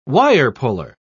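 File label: "wirepuller", British English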